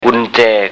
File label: "gun jae", Thai